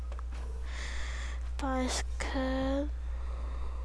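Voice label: "bicycle", spoken word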